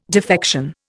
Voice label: "defection", Indian